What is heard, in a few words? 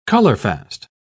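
"colorfast", British English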